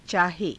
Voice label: "caahe", Hindi

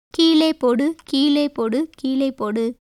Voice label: Tamil

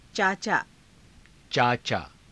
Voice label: "caacaa", hindi

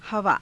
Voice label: "havaa", Hindi

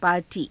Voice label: "paar_tii", Hindi

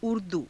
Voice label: "urduu", Hindi

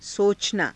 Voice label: "socanaa", hindi

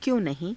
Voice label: "kyo~ nahii~", Hindi

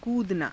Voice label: "kuudanaa", Hindi

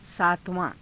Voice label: "saatavaa~", Hindi

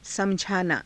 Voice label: "samajhaanaa", hindi

